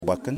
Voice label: "Snake", Yimas

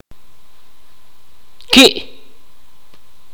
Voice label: "bueno", mopan

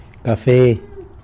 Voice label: "cafe", tlacolula; coffee

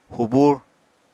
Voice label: "hubur", apatani

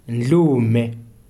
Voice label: "man", Kalanga

